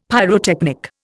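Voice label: "pyrotechnic", Indian English; Dictionary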